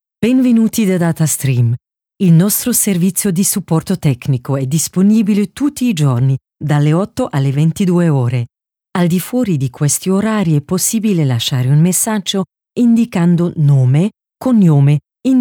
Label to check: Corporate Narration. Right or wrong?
Wrong